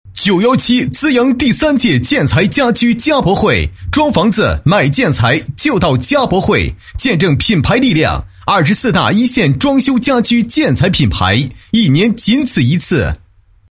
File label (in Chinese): 男 叫卖; 资阳第三届建材、家居家博会